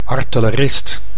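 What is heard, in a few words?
"artellerist", Dutch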